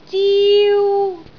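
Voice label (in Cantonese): "ziu1", Cantonese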